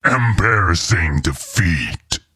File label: Embarrassing Defeat, Announcer; liblast